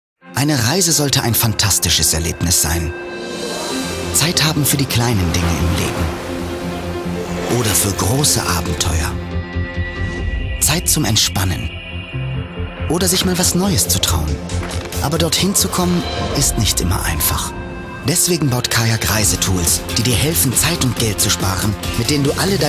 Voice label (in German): sprachprobe werbung off reisen; kayak